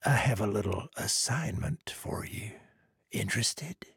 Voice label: trader male neutral quest offer